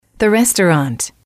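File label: "the restaurant", English, female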